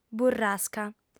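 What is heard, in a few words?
"burrasca", female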